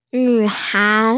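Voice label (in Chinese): "nv3hai2", Mandarin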